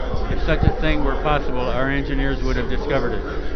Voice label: banquet story two sealed hifi earphones on KEMAR's ears; mckaudio